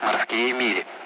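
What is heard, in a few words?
Speech, Russian; Allied Flight; nautical miles; DCS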